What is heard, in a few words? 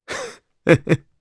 Happy, jp; Dimael; kingsraid